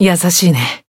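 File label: Voice healed, Japanese; clarte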